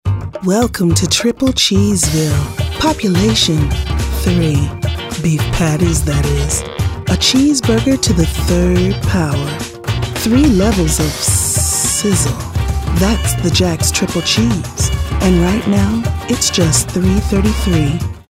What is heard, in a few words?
sexy